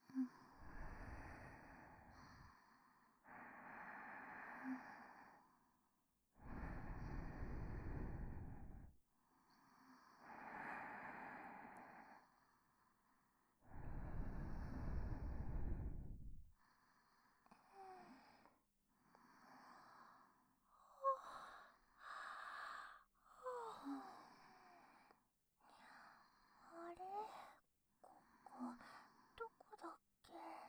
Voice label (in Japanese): 両耳舐め手コキ SEなし; 美月と芽衣